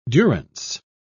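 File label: "durance", British English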